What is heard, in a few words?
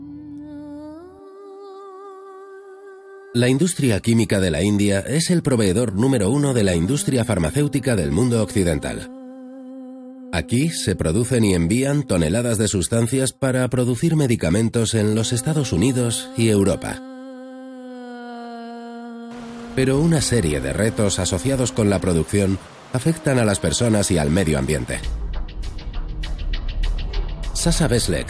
documentary Spanish Castilian